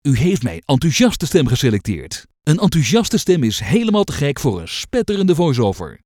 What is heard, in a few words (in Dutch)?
enthousiast